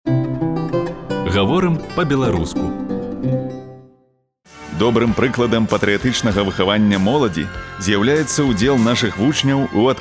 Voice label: Belarusian male